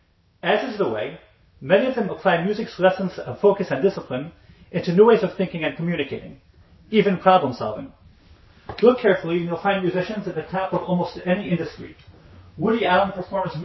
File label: male